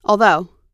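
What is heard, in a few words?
"although", US English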